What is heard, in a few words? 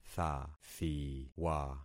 "thathiwa", concat syllables; Affixing experiment